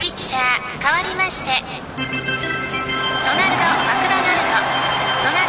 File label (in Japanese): 野球場アナウンス; ドナルド